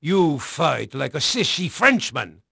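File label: Dutch male, voice; Pirates Of The Caribbean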